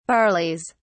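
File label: "barleys", British English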